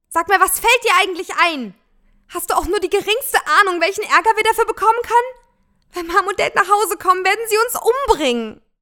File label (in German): Beispiel Teenager